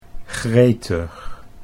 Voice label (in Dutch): "gretig", Dutch